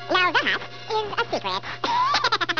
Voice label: chipmunk; The Xelloss Chipmunk laugh